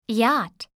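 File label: "yacht", English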